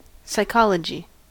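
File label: "psychology", US English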